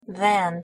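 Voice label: pronunciation "than", English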